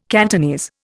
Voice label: "Cantonese", Indian